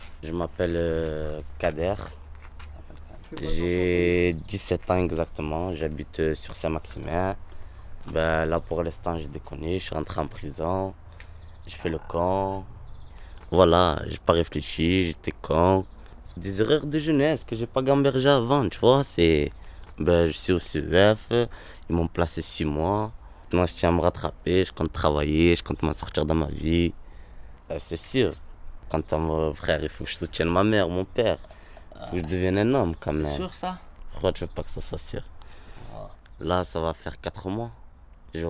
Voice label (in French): interview; cef 2012